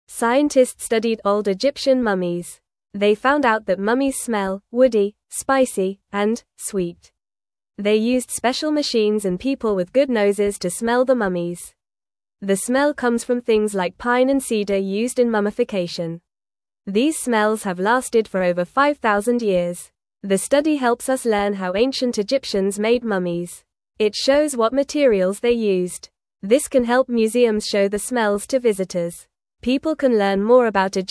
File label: English Beginner FAST Reading; English Newsroom; Mummies Smell Like Trees and Spices from Egypt